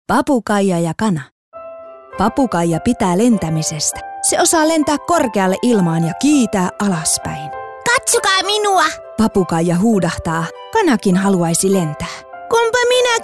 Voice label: female finnish voice over character

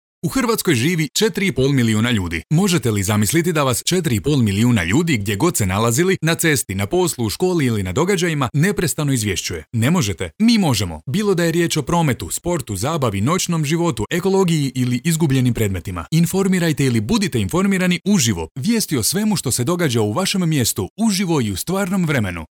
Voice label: male croatian voice over sales

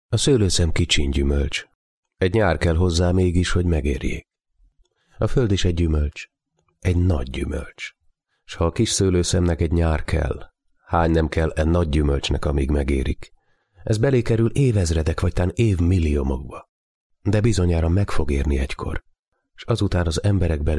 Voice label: male hungarian voice over talent narrative

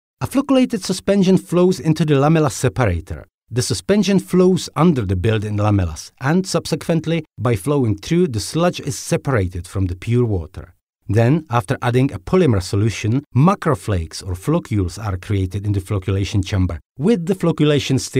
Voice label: male czech voice over english

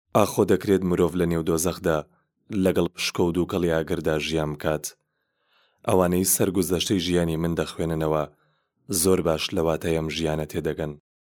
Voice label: male kurdish voice over talent narrative

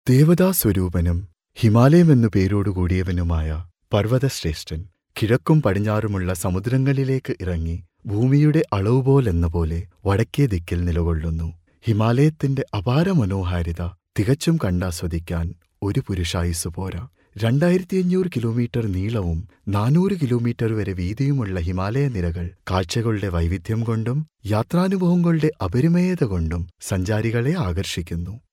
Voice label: male malayalam voice over talent narrative

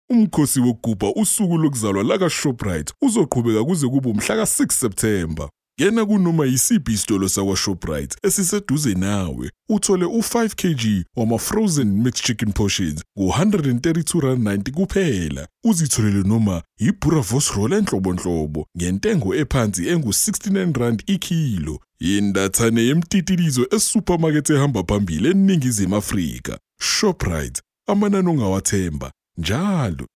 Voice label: male zulu voice over talent sales

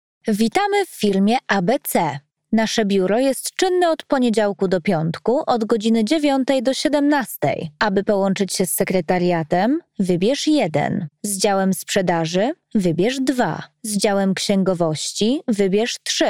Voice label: female polish voice over sales

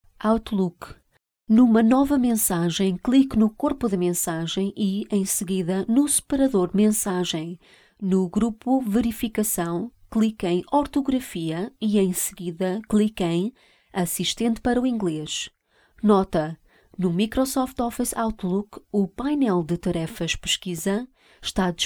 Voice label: female portuguese voice over narrative, portugal

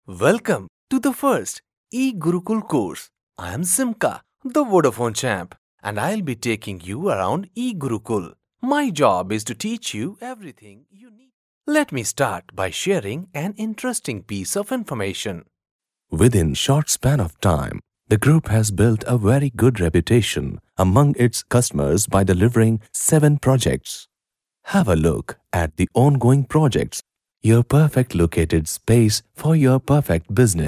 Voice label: male punjabi voice over talent english

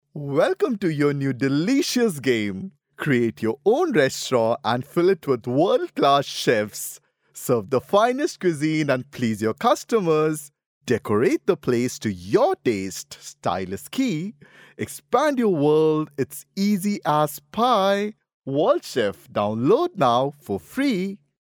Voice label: male indian voice over talent upbeat

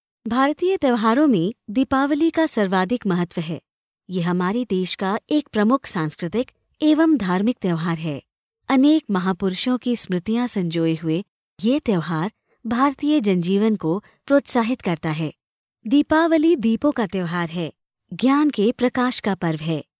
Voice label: female hindi voice over narrative

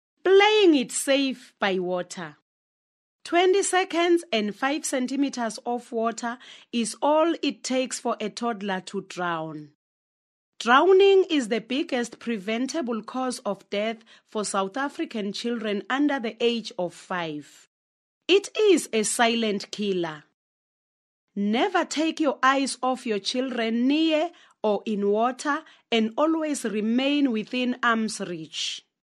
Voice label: female swazi voice over english

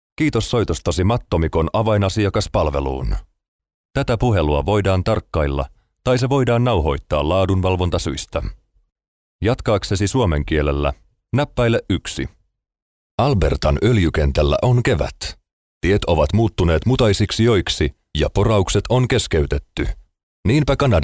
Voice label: male finnish voice over narrative

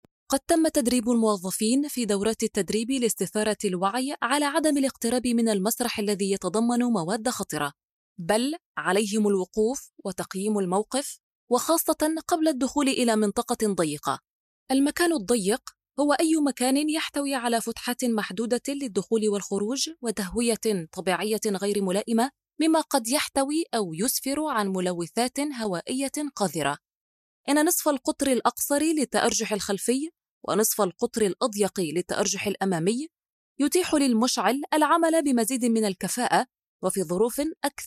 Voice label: female arabic voice over narrative

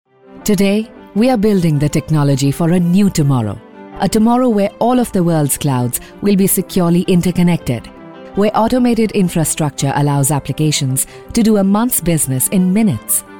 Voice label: female indian voice over talent upbeat

